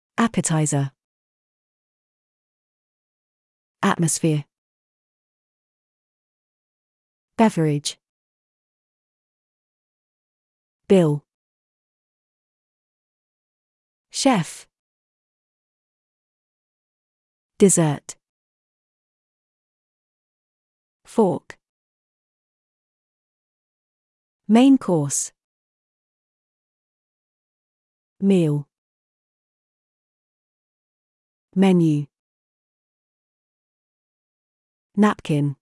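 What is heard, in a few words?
British; restaurants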